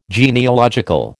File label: "genealogical", American